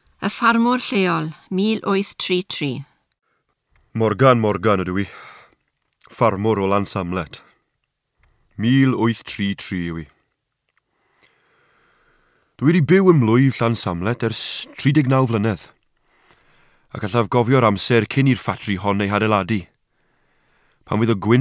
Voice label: The Farmer Welsh